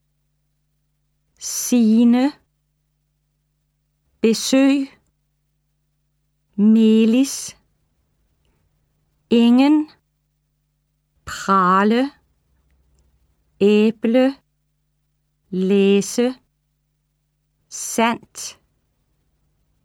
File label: Pronounciation Guide Vowels, Danish; Colloquial Danish 1